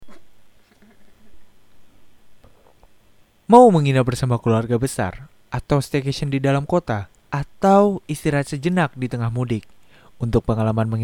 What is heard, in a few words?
VOICES CASUAL INDO; SUMMER VOICES